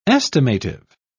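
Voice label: "estimative", British English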